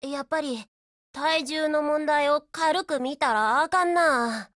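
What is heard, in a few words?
BREAK Japanese, Voice; GF Alarm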